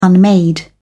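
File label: "unmade", English, British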